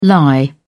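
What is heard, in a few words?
"lie around", British English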